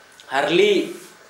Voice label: Gta hawk, Dialect: Hill